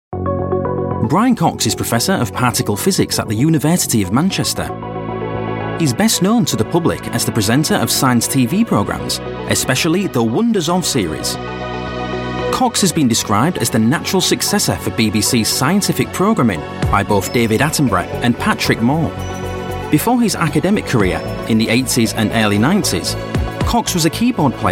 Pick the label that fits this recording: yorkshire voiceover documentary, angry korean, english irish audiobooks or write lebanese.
yorkshire voiceover documentary